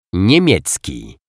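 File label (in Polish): "niemiecki", Polish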